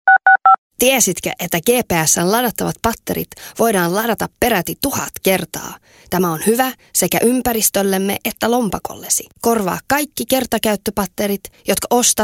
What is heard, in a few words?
fin, woman